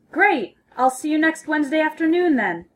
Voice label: phone formal